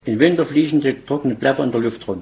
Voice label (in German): obersachsisch